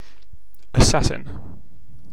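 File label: "assassin" English, British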